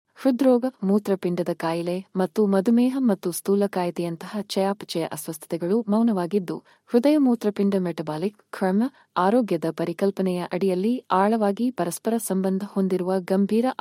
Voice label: video Female Kannada